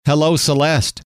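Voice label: "hello", male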